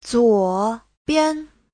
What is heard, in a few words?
"zuo3bian5", chinese